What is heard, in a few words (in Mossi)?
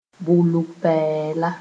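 "bʋ-lug-pɛɛla", Mooré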